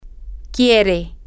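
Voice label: she wants, Spanish, female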